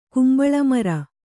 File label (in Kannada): "ಕಂಬಳಿಮರ", Kannada